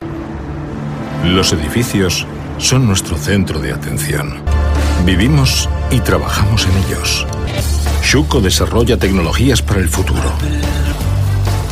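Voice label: European Spanish, Corporate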